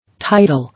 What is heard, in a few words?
"Title", English